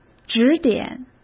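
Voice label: "指点", Mandarin Chinese; hsk; chinese flashcards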